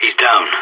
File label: enemy down, radio; SAS